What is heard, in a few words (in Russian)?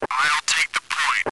zvuki golosovykh komand; v igre counter-strike 1.6